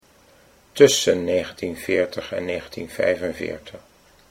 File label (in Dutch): tussen 1940 45, Dutch